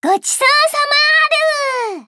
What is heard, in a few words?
victory jp